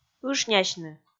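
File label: "ышнясьны", Komi, female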